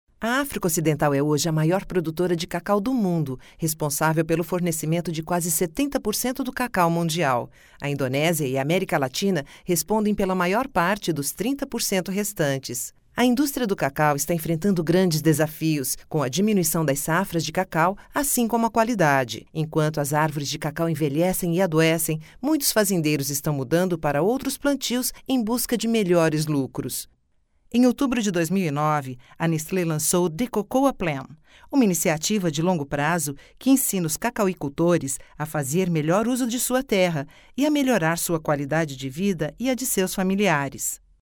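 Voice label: brazilian portuguese female narration, Deep Female Brazilian Portuguese Voice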